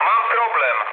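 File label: aircraft damaged, mood low, Polish speech; War Thunder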